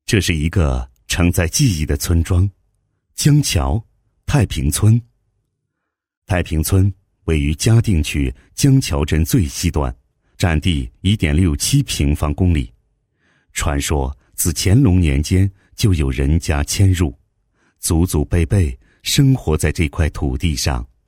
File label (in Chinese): 男 城市宣传 形象宣传; 江桥太平村形象宣传